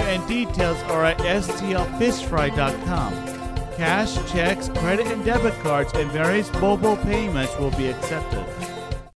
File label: Fish Fry Promo Spot; IW Fat Friday; 2020